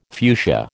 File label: "fuchsia", American